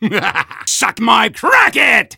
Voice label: rage; tf2; freak fortress 2